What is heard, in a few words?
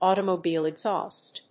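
"AUTOMOBILE EXHAUST", English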